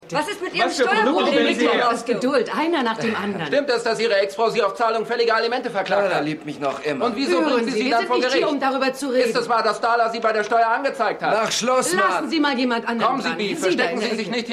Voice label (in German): Reporterin Reporter